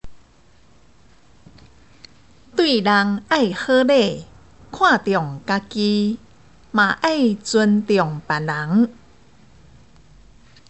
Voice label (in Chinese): (閩); 生活常規